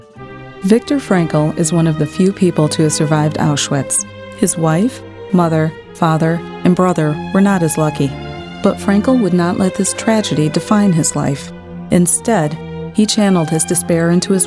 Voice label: Narration Caring Touching